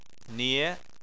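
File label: "nie" second tone, Chinese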